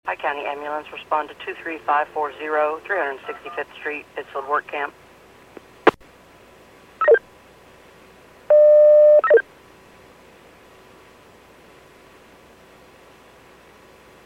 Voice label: PAGING